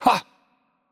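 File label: Italian, attack light; VO; bloom minigame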